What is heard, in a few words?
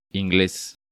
"Ingles", Spanish